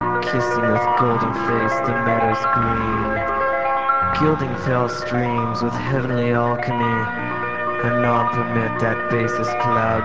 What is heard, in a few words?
poem